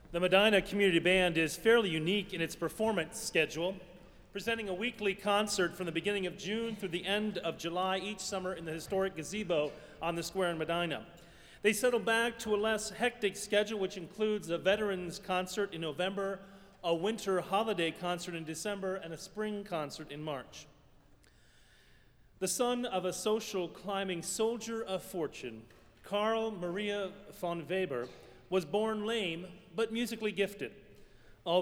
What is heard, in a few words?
Narration